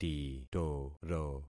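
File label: "titoro", concatenated syllables; Affixing experiment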